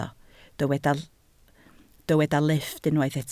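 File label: "lifft" Welsh, spoken word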